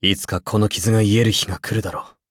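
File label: level up, Japanese; cookie run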